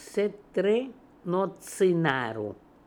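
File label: "Setrenotzinarco", Russian, pronounciations; Fourth Way; Beelzebub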